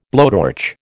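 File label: "blowtorch", American English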